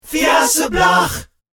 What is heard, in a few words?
In The Morning Arabic